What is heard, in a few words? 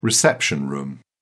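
"reception room", British English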